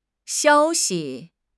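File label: "xiao1 xi5", Mandarin Chinese; HSK4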